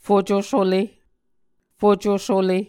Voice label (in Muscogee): "fucosule", Muscogee